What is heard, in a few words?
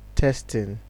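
"testing", Nigerian Pidgin, male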